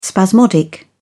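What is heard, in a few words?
"spasmodic", British English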